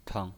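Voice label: "teng", pinyin voice, neutral tone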